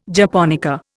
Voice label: "japonica", Indian